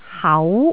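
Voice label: "hau2", Cantonese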